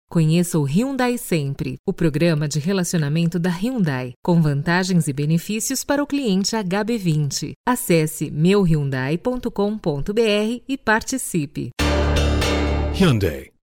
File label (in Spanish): locutora brasilena